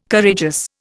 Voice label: "Courageous", Indian

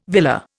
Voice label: "villa", Indian

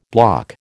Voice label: "block", American

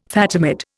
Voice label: "Fatimid", Indian

